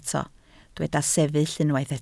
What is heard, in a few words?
"sefyll", Welsh